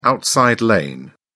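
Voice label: "outside lane", British English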